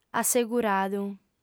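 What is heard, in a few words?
"asseguradu", female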